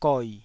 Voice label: "goi3", taiwanese

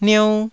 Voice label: "niou1", taiwanese

